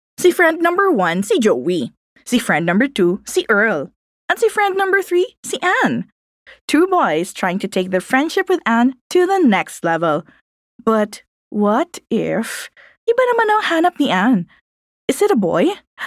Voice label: Taglish (Engaging, Fun

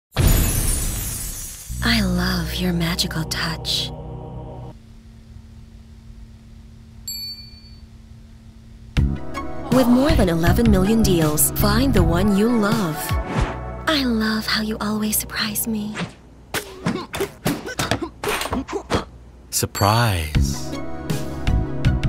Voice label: English (Smooth, sultry)

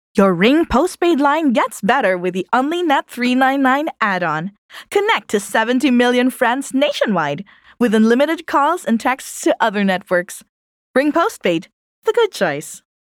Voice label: English, energetic, excited, selling

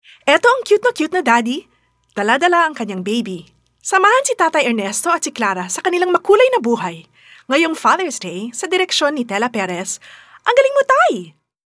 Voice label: Tagalog (Energetic, Funny)

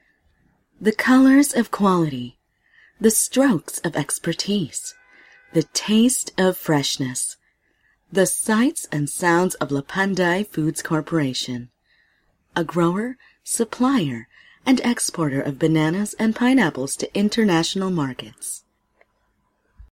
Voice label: English (Corporate)

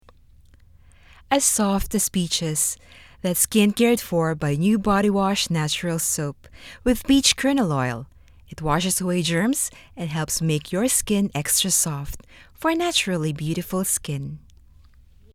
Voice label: English (Motherly, Selling)

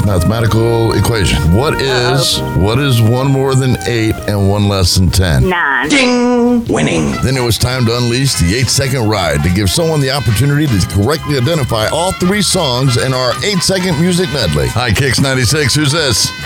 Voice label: MORNING PROMO

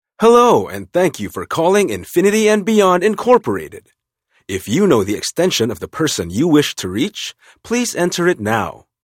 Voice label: English (Informative, Professional)

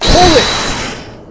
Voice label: Hold It (Male)

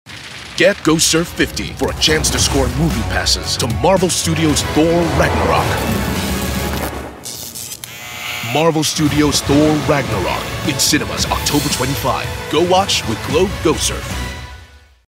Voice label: English (Announcer)

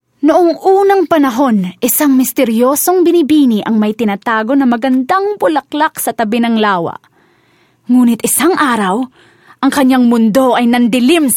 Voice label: Tagalog, Expressive, Dramatic

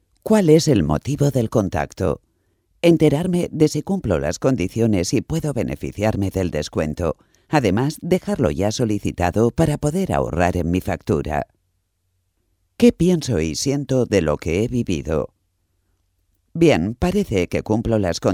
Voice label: spanish

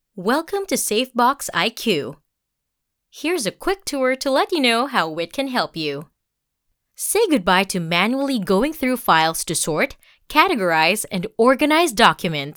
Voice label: English (Instructional)

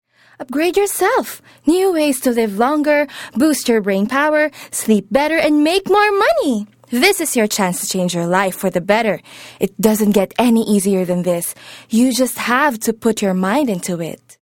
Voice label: English (Selling)

